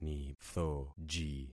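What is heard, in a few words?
"nithoji", concatenated syllables; Affixing experiment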